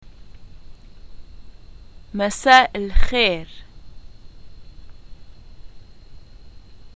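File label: greetings good evening, Lebanese arabic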